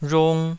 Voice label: "rhung2", taiwanese

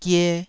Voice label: "gie2", Taiwanese syllable

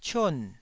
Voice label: "cion4", Taiwanese

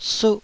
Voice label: "suh1", Taiwanese

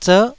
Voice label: "zer9", taiwanese syllables

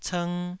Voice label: "cng2", taiwanese

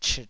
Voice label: "chit3", Taiwanese

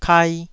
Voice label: "kai2", taiwanese syllables

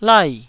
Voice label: "lai4", taiwanese syllables

